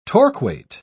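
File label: "torquate", English, British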